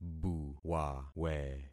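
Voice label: "buwawe", concatenated syllables; Affixing experiment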